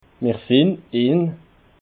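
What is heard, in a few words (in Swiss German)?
"mersinnin", Alsatian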